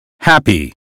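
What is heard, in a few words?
us phonetics word "happy", American; 英语音标发音（剑桥版）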